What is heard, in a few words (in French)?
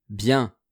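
"bien", French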